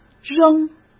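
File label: "扔", Mandarin Chinese; hsk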